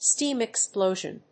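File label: "steam explosion", English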